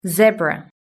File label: "zebra", British English